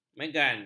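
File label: "megan", Malay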